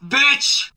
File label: "bitch", expletives